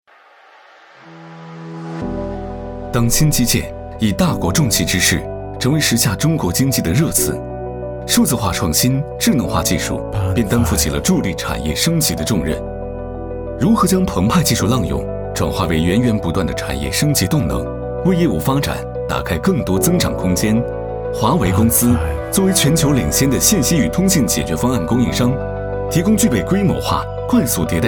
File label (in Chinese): 科技感; 华为云